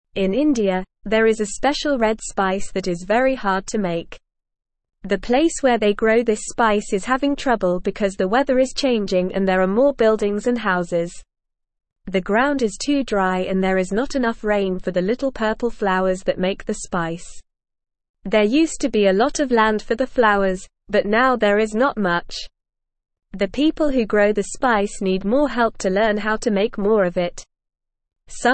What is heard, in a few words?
Beginner NORMAL Reading, English; Trouble for Spice Weather and Buildings Cause Problems; English Newsroom